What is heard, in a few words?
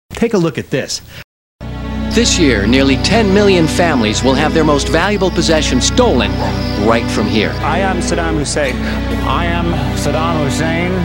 Commercial; Sadaam Hussein